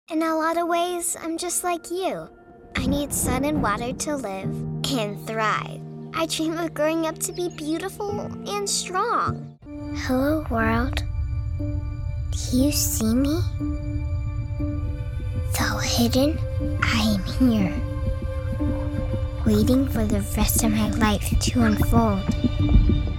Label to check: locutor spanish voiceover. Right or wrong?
Wrong